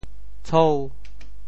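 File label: "tshou5", Teochew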